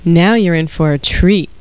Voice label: TEASE